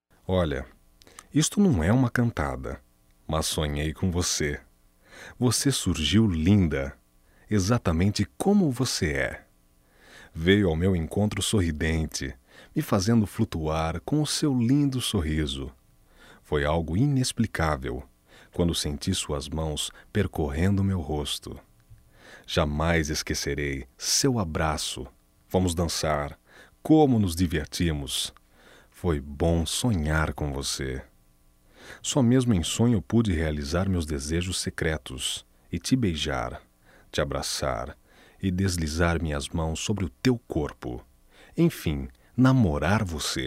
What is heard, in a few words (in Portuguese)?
Declaração Masculino, Só Voz; Telemensagens; MOMENTOS DE AMOR